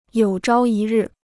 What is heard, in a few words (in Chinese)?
"有朝一日", Chinese